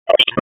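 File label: Police Southwest District Dispatch; Raleigh; p25; wake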